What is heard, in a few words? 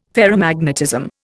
"ferromagnetism", Indian